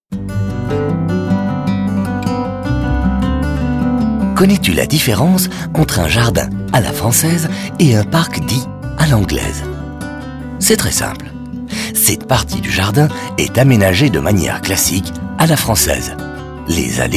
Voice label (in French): audioguide fr enfant; modave